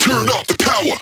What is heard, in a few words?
Vocal Phrase Male "Turn Up The Power"